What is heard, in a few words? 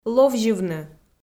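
"ловзьывны" Komi, female; kpv audio dict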